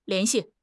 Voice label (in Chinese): "lian2 xi4", 汉语口语; 汉语口语新时代-初级下